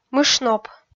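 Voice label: "мышноп", Komi, female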